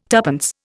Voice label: "tuppence", Indian

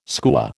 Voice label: "skua", American

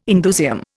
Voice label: "indusium", Indian

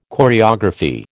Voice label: "choreography", American

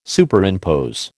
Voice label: "Superimpose", American

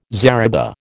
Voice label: "zariba", American

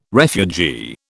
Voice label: "refugee", British

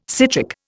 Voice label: "citric", Indian

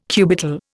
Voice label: "cubital", Indian

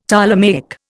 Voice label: "Ptolemaic", Indian; Dictionary

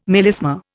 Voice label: "melisma", Indian

